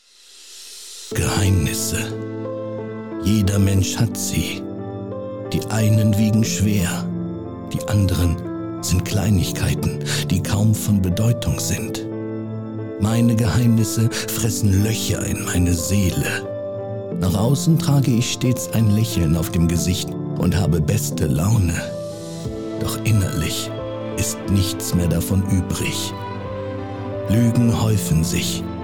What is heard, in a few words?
Audiobook (Hörbuch), Trailer, German; "If you need me" von Ann Merow (Prolog)